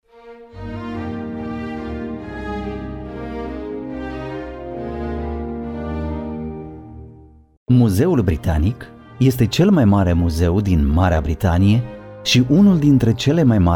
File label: Romanian M Presentation